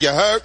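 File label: "you heard", spoken; Voice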